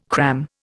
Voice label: "cram", Indian